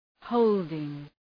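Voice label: "holding", English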